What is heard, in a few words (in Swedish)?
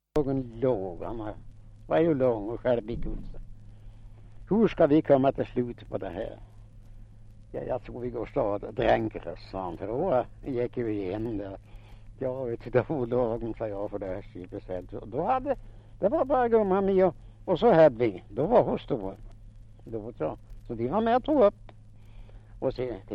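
Fellingsbro Västmanland, dialekter, Svenska sagor; Folkminnen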